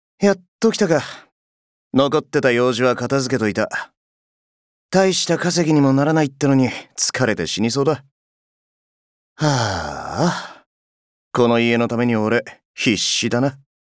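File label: voice Japanese welcome; Outsider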